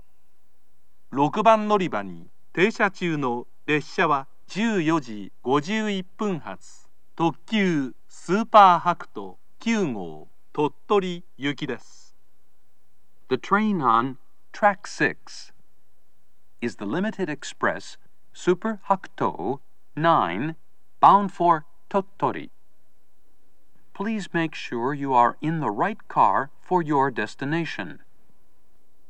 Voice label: limited express tottori, station, kyoto; sp-hakuto9